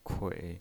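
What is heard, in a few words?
"kui", pinyin, Mandarin Chinese, third tone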